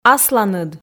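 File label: "асланыд", Komi, female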